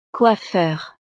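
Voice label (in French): "coiffeur", French; profesiones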